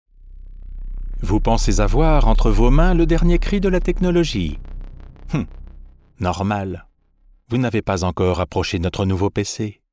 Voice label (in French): voix off; instit hitech